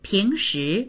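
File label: "ping2shi2", Mandarin Chinese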